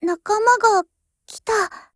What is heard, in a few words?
BUILD OVER JP; GF Alarm; M1A1